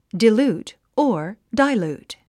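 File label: "dilute", English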